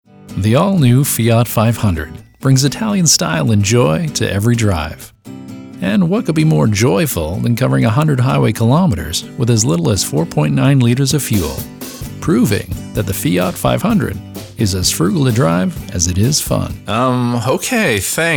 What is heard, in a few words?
Voiceover